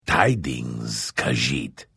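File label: Hello, Vo; Morrowind GOTY GOG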